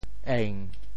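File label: "eng7", Teochew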